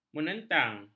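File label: "menentang", Malay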